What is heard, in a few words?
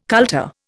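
"kultur", Indian English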